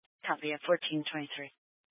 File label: Fire Department Dispatch, scanner; Grand Junction